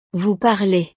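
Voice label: "vous parlez", French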